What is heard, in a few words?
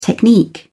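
"technique", British English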